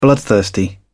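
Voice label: "bloodthirsty", British English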